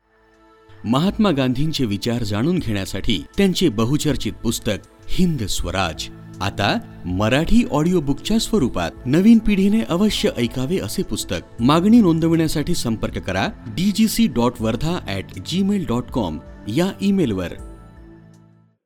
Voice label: Promo, Marathi

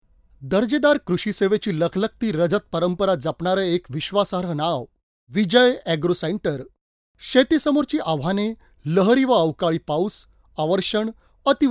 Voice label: Marathi

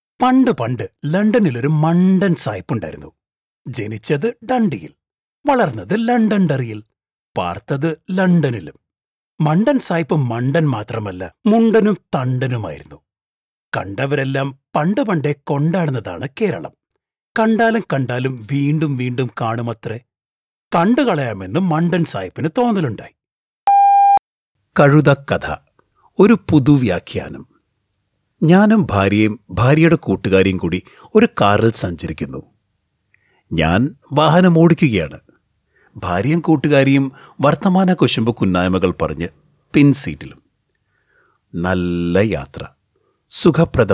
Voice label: Audio Books Malayalam

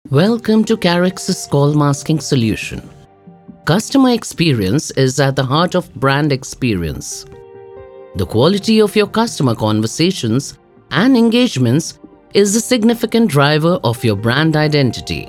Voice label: explainer, English; karix

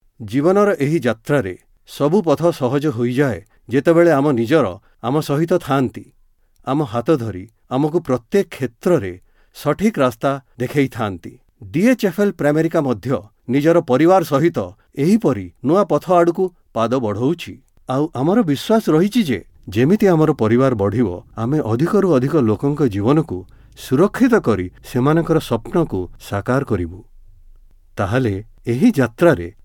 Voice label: narration oriya

